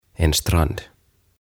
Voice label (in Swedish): "En Strand" "Ranta"